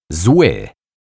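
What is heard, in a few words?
"zly", Polish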